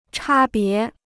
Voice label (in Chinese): "差别", Chinese